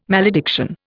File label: "malediction", Indian